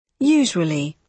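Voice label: "usually", British English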